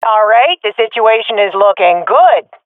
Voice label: round winning, swat fem; vo; agents; Source Model Packs; Counter Strike Model Pack; CS2

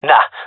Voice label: negative, male; vo

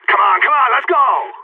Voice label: radio lets go; Counter Strike; CS:GO; phoenix

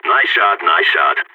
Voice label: nice shot, balkan

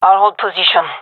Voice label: waiting here, gendarmerie fem; vo

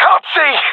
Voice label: blinded, professional epic; vo; Counter Strike Model Pack; CS:GO

